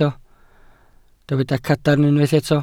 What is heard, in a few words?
"cadarn", Welsh